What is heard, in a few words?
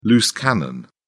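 "loose cannon", British English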